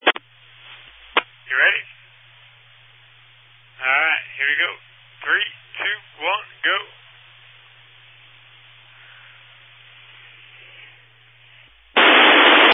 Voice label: Family Radio Service (FRS)